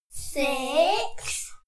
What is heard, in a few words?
kids counting "six"